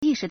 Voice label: "yi4 shi2", Chinese